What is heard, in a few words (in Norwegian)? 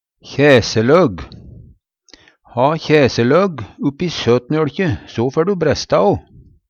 kjæseløg, Numedalsmål